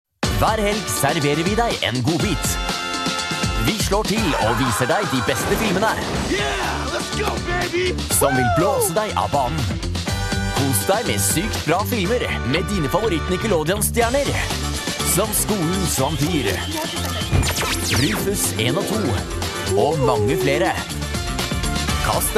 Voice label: norwegian promos